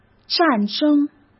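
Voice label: "战争", Mandarin Chinese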